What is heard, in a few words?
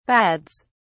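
"bads", British English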